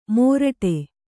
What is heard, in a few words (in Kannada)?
"ಮೊರಟೆ", Kannada